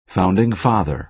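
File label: "founding father", American English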